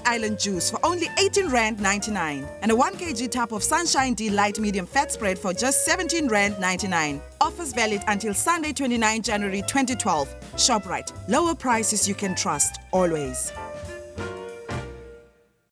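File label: english South African female commercial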